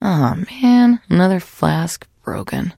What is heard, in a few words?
trader monolog; 七日杀; 商人杰恩